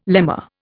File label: "lemma", Indian